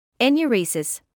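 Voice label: "enuresis", British English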